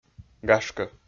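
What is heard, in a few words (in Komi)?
"гашкӧ", Komi-Zyrian, male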